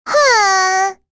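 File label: Vox Deny, voices heroes English; Rehartna; kings raid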